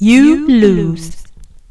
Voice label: you lose, announcer

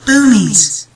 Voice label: boomies, announcer

